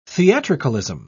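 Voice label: "theatricalism", British English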